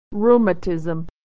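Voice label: "rheumatism", American English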